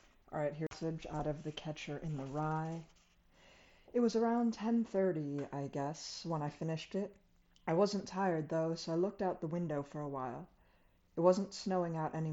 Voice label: Samsung Galaxy Buds Plus microphone